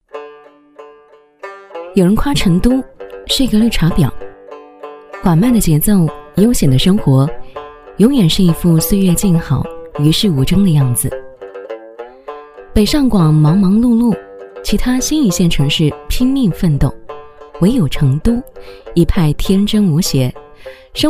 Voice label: 女 地方解说 重庆 成都; 醒醒吧重庆，别让成都抢了你的风头

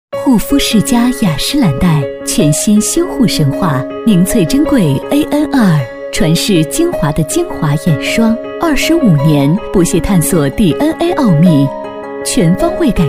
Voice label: 女 品牌广告 化妆品 优雅，温馨; 女21-大气知性 高端大气; 雅诗兰黛

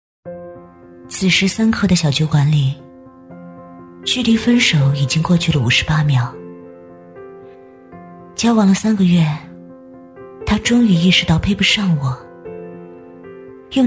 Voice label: 女, 微电影, 失恋, 内心旁白

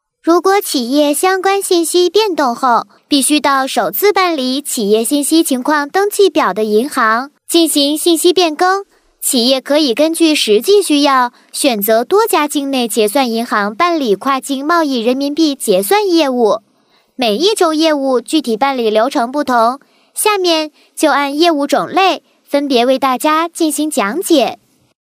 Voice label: 女, 角色女童, 银行业务; 可爱

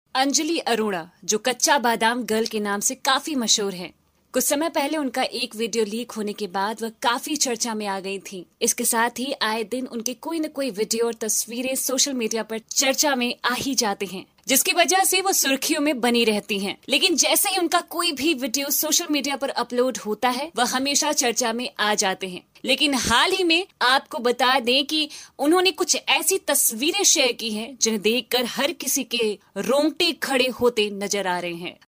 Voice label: 印地语女声

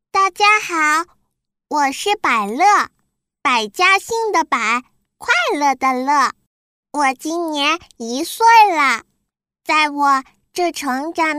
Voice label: 女, 儿童配音, 1-2岁小女童; 全能型; 可爱

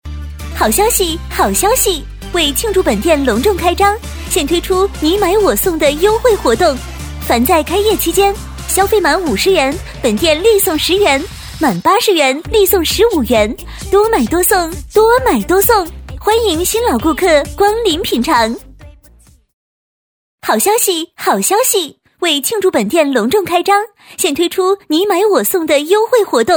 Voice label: 女 卖场广告 新店开业 喜庆活泼; 女101-大气庄重; 轻松活泼

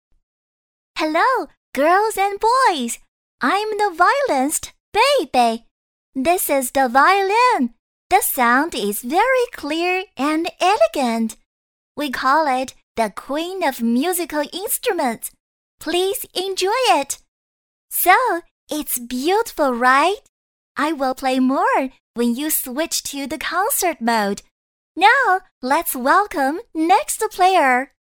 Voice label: 女, 英文, 女童; 国籍

